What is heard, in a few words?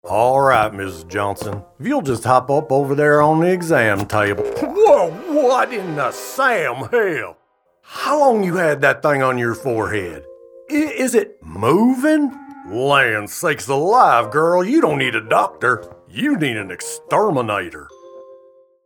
Animation; Dr Beau